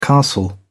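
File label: "castle", British English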